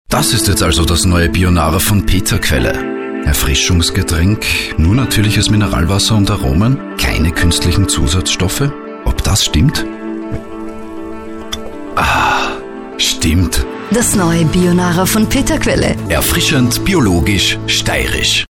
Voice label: spot; bionara